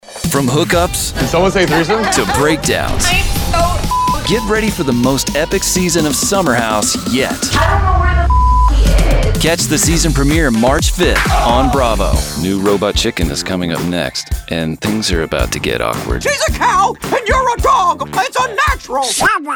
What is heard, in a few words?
Male Promo, en-AU